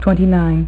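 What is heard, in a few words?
"twenty-nine", female; MacCribbage; InterStellar MacCribbage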